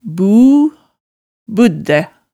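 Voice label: "bu, buddæ", Hemsedal dialect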